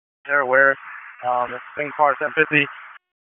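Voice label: Sheriff's Office Dispatch; p25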